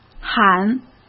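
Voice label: "喊", Mandarin Chinese; chinese flashcards; hsk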